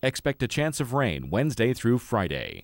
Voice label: RAIN W F